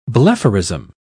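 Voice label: "blepharism", British English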